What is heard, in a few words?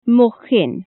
"pig", welsh